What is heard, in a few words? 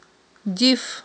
"диф", Aghul